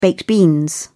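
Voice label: "baked beans", British English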